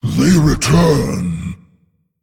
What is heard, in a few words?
Male Dragon